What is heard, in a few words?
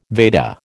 "Veda", American